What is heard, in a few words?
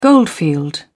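"goldfield", British English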